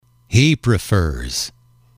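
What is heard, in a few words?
he prefers, male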